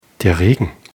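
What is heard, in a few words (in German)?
"Regen", German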